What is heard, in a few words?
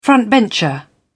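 "frontbencher", British English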